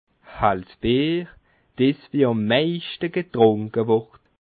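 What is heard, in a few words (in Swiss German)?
"hellsbier", Bas Rhin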